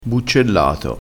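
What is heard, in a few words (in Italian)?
"buccellato", dialettale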